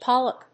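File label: "polyp", English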